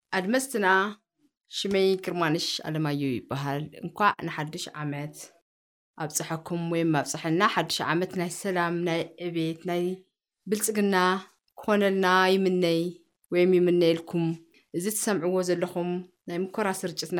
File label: female tigrinya voice over narrative